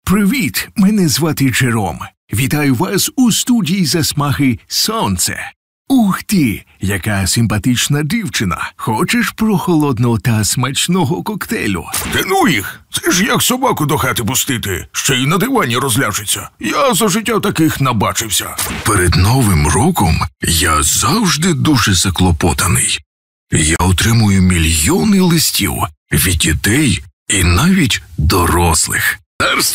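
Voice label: Ukrainian M character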